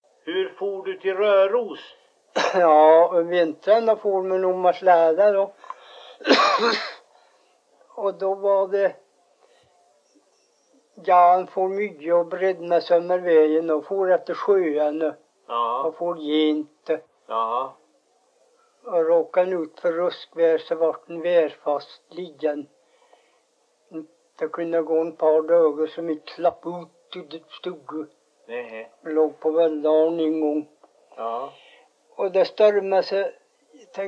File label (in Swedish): Hede, dialekter